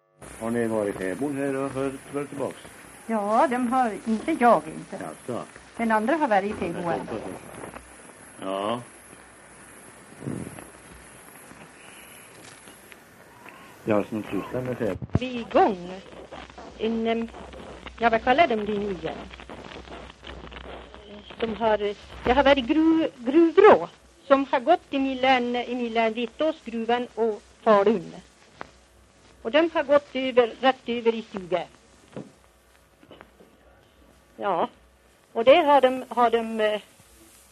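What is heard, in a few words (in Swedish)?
Järbo Gästrikland, Svenska sagor, dialekter; Folkminnen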